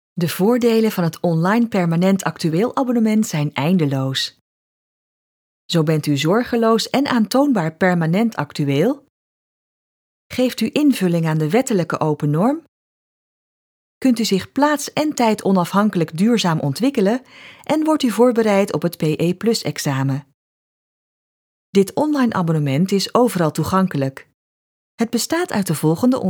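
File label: e-learning, Dutch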